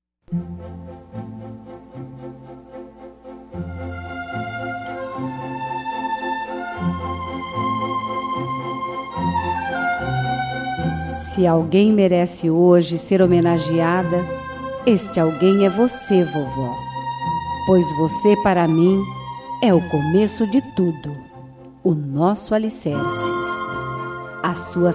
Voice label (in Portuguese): Dia das Maes pra avo, F